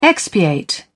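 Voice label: "expiate", English, British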